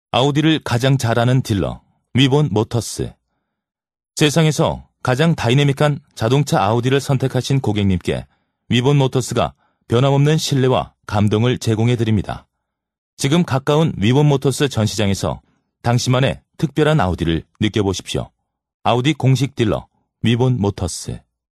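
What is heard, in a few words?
Korean male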